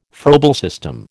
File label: "Froebel system", American